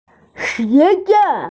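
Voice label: "xue xiao" (school), Chinese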